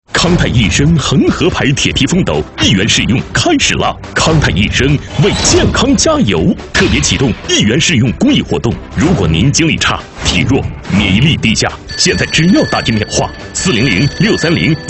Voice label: 男 电购 铁皮枫斗

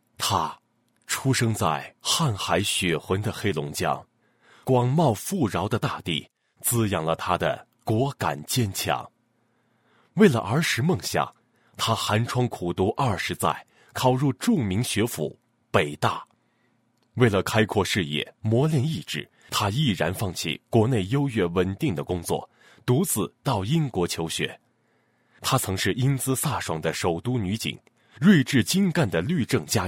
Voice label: 男 广告, 国语男声配音 年轻磁性

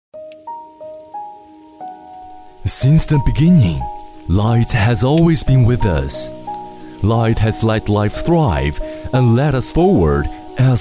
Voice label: 男, 英文, 专题

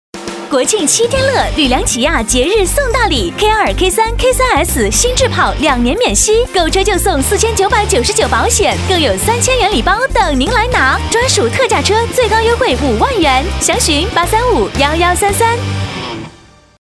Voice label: 女, 电台广告, 激情, 活力; 悦达起亚

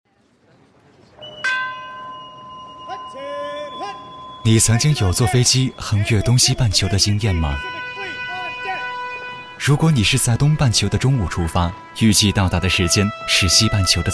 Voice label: 男 旁白, 年轻; 国语男声配音

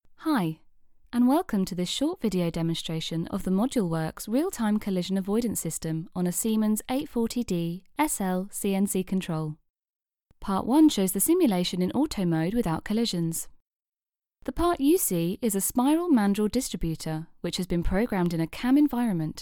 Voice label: 外籍英女 英式 干音 在线教育

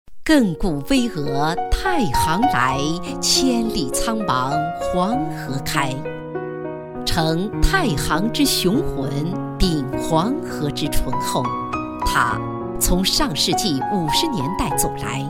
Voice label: 女 专题 大气抒情 商场

